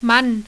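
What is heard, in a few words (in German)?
"mann" German; ipa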